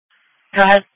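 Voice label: Police Patrol (Dispatch)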